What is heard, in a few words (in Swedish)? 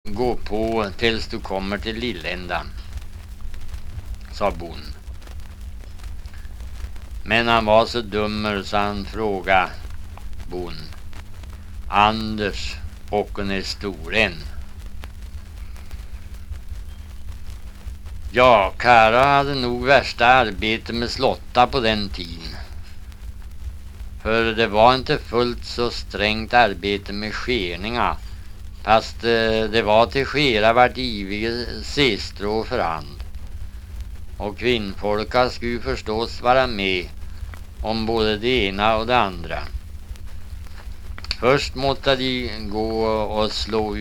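Riala Uppland, dialekter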